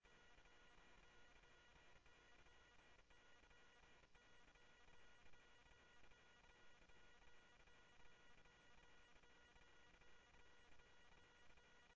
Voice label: Interop Mutual Aid, scanner audio; G1 Interop MutualAid-InterS; ThompsonPeakHigh